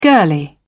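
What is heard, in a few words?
"girlie", English, British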